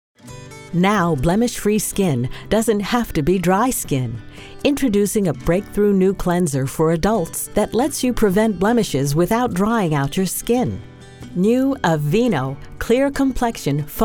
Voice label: TV Demo